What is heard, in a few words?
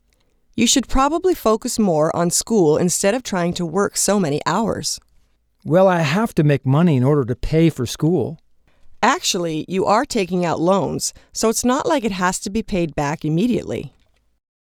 conversation